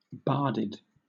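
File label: "barded", British English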